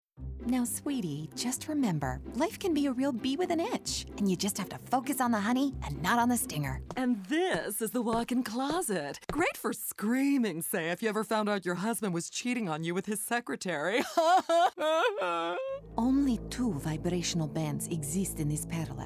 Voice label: Animation

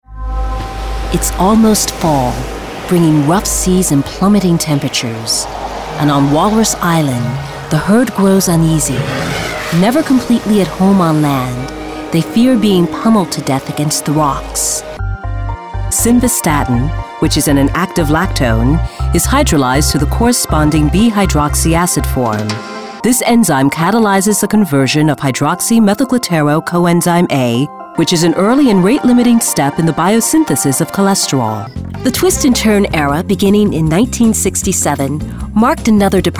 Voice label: Narr

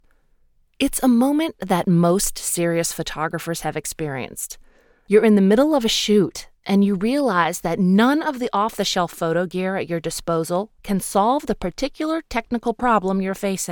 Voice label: Photography Documentary

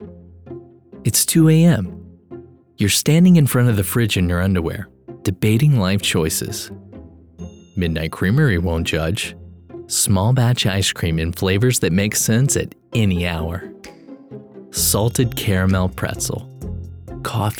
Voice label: TV Ad Tempting, Whimsical, Humorous; Midnight Creamery

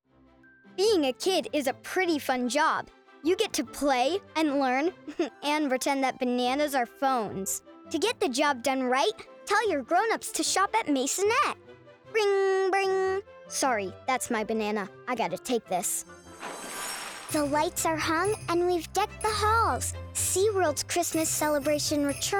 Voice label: Commercial, real kid

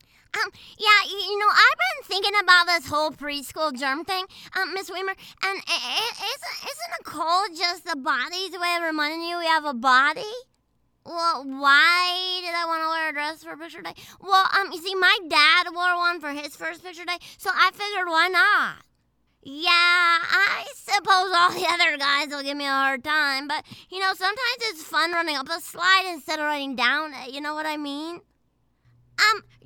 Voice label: Preschooler

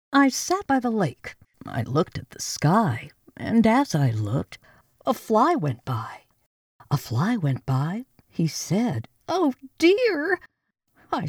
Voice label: AudioBook - Childrens Fun with Characters

